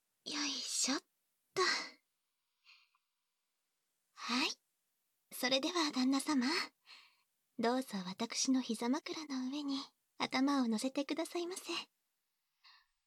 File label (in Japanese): 耳かき左右＆耳産毛処理（バイノーラルマイク収録 効果音あり）, ASMR